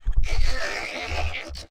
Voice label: Dybbuk Conversation, Vocals Humanoid; Sony Creative Software

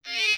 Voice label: Evil Telepathic Girl, Vocals Humanoid; Sony Creative Software

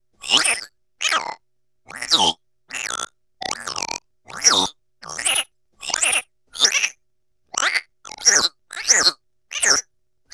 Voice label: Cybertronic Worm, Vocals Humanoid; Sony Pictures Sound Effects Series Volumes 1-10; Sony Creative Software

